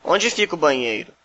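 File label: toilet, Brazilian Portuguese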